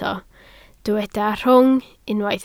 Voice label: "rhwng", Welsh